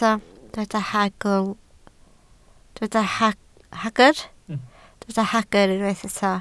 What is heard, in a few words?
"hagr", Welsh